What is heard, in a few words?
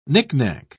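"nicknack", British English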